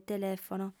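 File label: "telèfono", female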